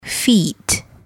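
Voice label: "feet" English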